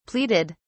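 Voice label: "pleaded", British English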